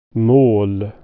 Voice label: "goal", swedish